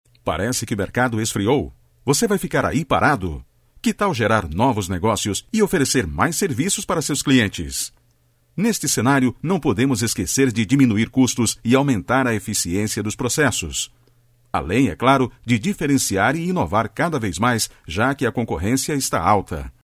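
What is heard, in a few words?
male Portuguese; Portugal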